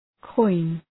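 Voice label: "coin", English; {kɔın}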